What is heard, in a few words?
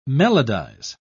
"melodize", pronounce